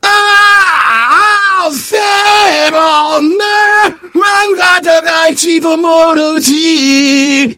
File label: engineer battlecry